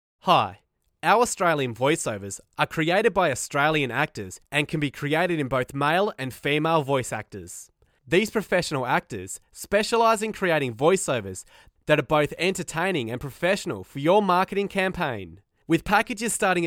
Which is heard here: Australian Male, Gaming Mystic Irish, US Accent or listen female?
Australian Male